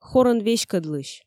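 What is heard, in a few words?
"хорӧн веськӧдлысь", female, Komi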